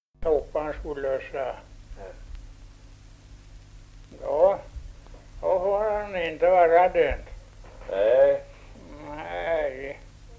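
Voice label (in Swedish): Ovansjö Gästrikland, Svenska sagor, dialekter; Folkminnen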